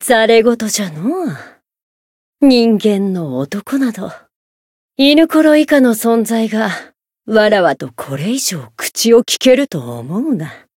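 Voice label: Voice story, Japanese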